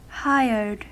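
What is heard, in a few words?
"hired", American English; pronunciations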